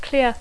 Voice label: "clear", English